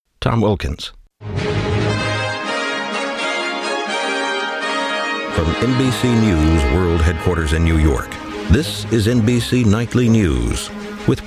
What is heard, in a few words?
Nightly News Open, Auditions; NBC